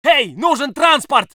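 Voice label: voice soviet infantry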